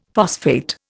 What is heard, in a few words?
"phosphate", Indian; Dictionary